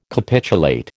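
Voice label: "capitulate", American English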